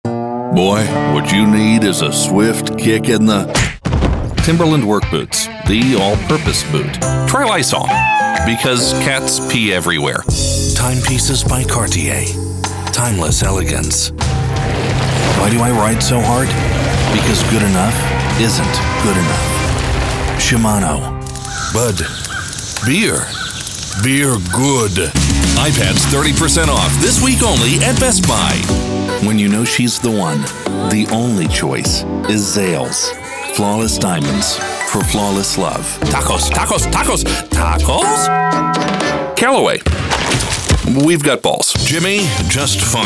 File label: US commercials mix